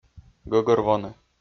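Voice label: "гӧгӧрвоны", Komi, male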